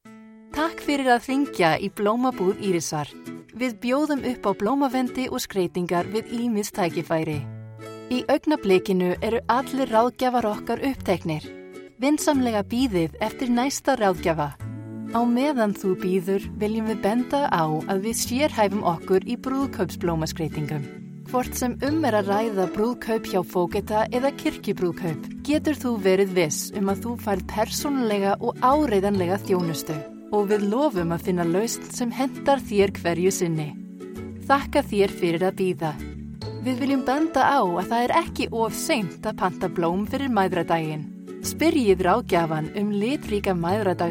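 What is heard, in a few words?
IS General, Icelandic; GoLocalise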